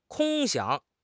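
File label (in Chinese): "空想", Chinese; HSK6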